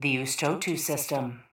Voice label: PA System